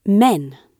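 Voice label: "men", British English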